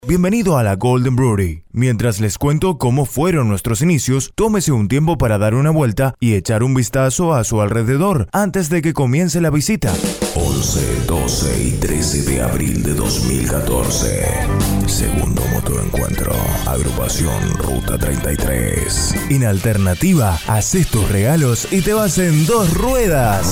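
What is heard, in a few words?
Spanish Latin America male voice over, sales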